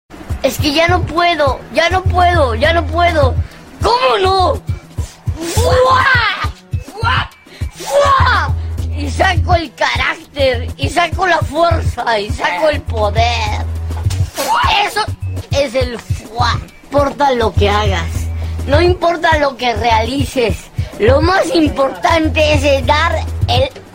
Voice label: Chipmunk